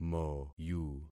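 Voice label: "moyu", concatenated syllables; Affixing experiment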